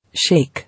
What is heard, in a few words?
"Shake", English